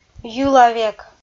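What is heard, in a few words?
"йылавек", Komi, female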